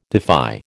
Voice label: "defy", American